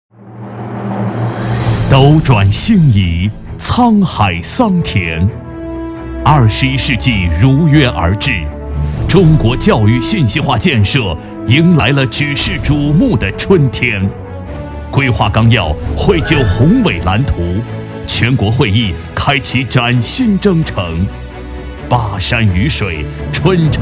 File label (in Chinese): 专题 学校 教育 浑厚, 男; 璧山